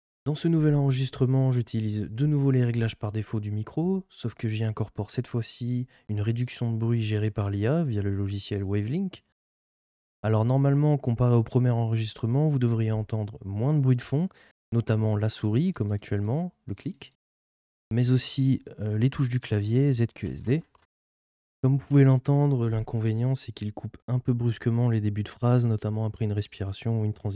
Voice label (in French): reduction de bruit par IA; wave neo